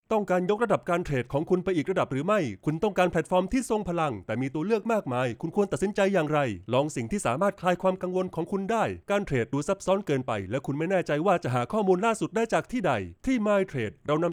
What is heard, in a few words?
Thai, Male